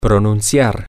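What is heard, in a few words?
Spanish, to pronounce, male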